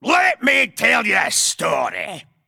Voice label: Male Dwarf

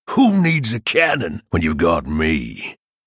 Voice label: Male Human; Jal

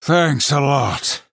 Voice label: Male Night Elf Thanks

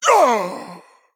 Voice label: Male Human Death

